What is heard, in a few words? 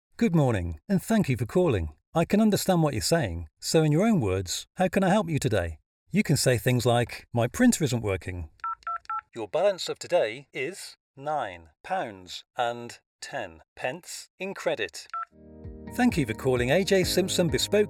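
English, male, IVR, voice prompts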